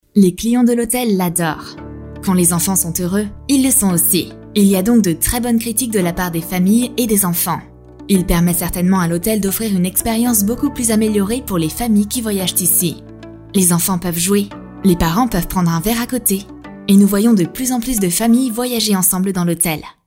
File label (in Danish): Fransk, speakere